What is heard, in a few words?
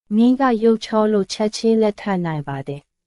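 Myanmar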